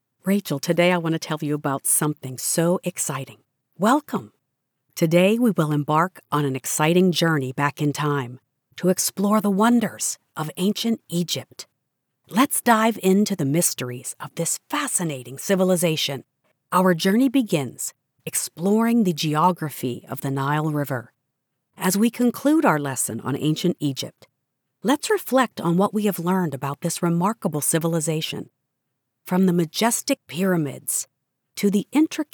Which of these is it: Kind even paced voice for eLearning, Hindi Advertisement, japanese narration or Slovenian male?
Kind even paced voice for eLearning